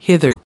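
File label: "hitherto", American English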